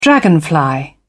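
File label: "dragonfly", British English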